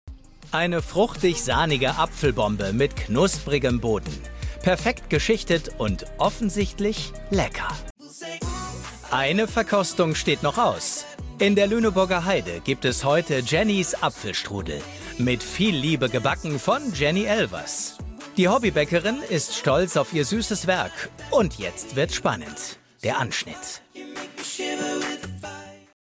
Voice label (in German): Kommentar, maenner; Promis backen privat